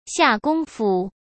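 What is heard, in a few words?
"下功夫", Mandarin Chinese; hsk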